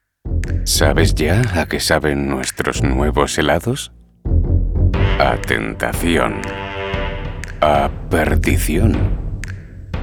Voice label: Sensual